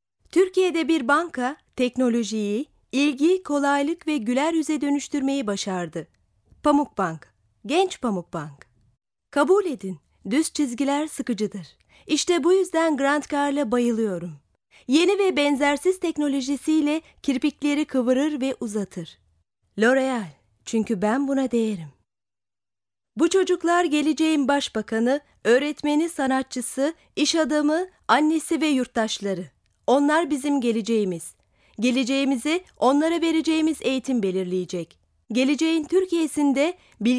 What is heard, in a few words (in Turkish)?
KADIN